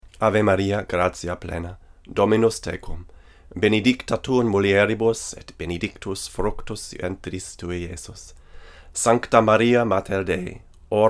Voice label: Fig 8 VO, Neumann KM88; Fig. 8 front side